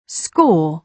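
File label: "score", British English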